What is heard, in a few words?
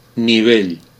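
level, Basque; gcompris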